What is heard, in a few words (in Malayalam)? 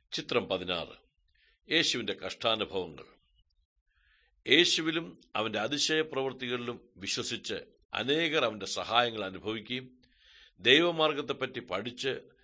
good news malayalam, drama